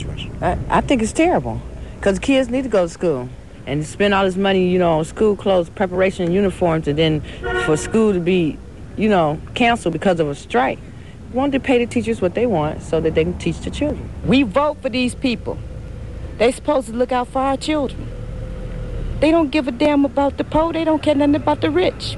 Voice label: AAE Cleveland, African American

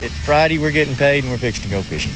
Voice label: Texas Dallas male, dialect

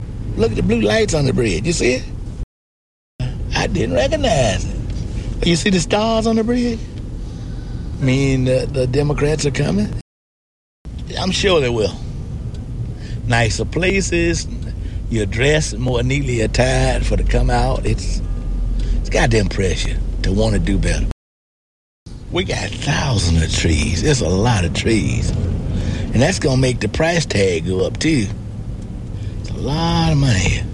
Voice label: AAE Chicago male, African American

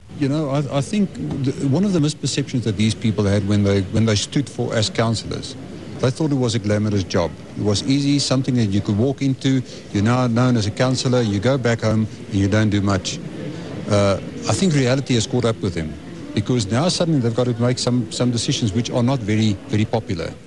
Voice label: South African White, dialect

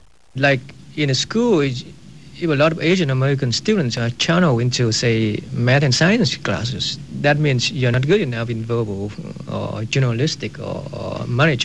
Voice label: Asian Vietnamese, dialect

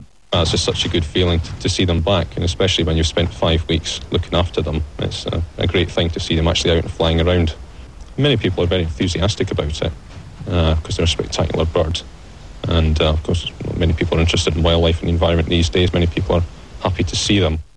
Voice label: Scottish, dialect

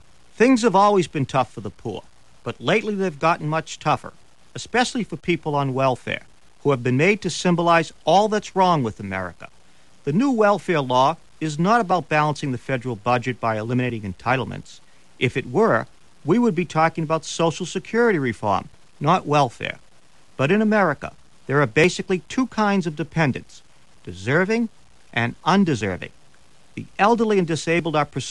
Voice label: Massachusetts, dialect

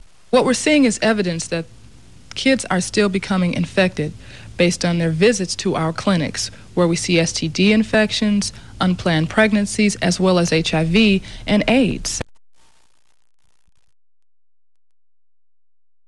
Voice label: AAE Chicago health worker, African American

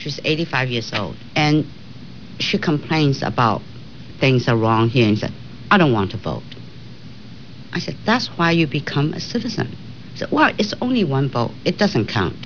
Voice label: chinese

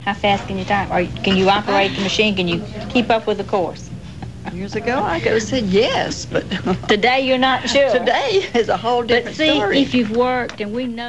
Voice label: Alabama female, dialect

